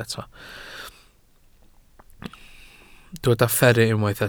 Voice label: "fferru", Welsh